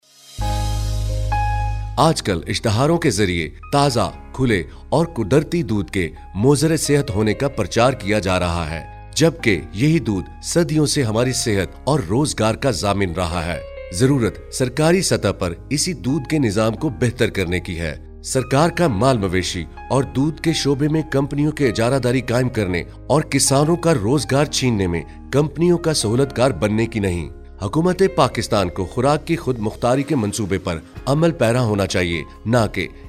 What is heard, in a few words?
Radio Message